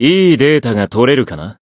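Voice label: Voice Japanese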